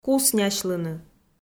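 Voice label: "куснясьлыны", Komi, female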